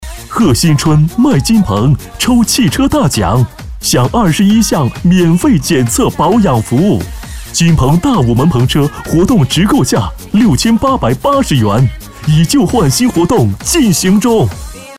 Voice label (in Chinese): 男 促销 电动车; 金鹏电动车